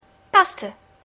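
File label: "pasta", English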